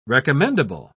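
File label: "recommendable", British English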